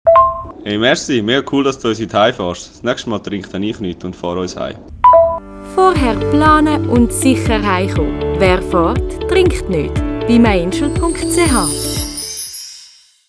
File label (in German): Jingles vorher maennlich